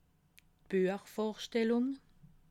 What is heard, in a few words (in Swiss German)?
"buechvorstellung", alsace